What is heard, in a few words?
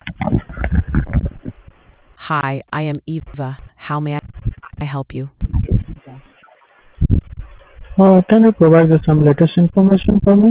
conversation